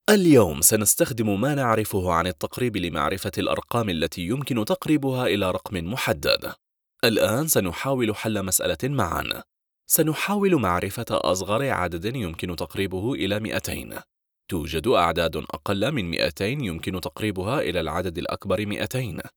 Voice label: arabic e-learning

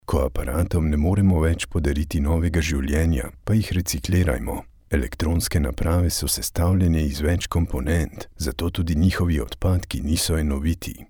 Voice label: slovenian narration

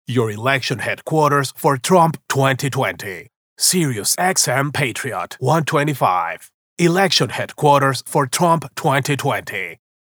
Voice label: english political ads

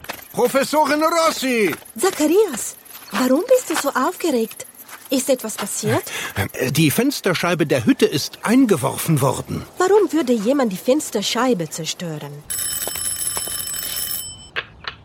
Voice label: italian others

